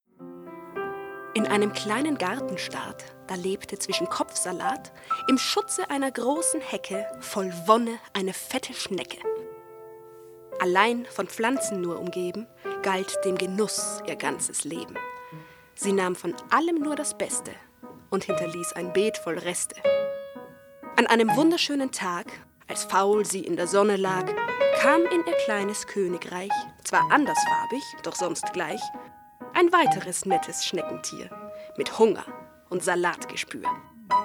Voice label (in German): Hörbuch; Im Schneckenhaus